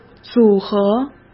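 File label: "组合", Mandarin Chinese; hsk; chinese flashcards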